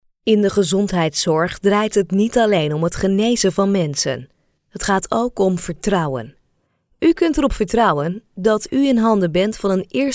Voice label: Sincere